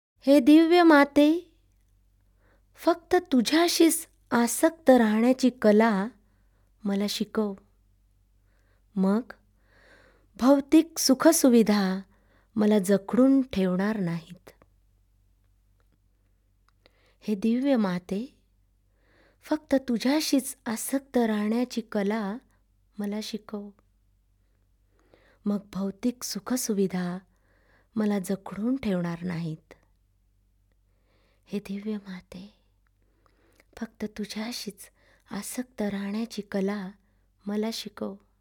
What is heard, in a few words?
Spiritual Affirmations, Marathi; Divine Mother; Love Peace Joy; Prayer & Light Meditation for World Peace